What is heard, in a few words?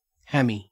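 "hammy" Australian English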